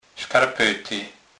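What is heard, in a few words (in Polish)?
"skarpety", Kurpie dialect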